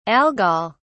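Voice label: "algol", British English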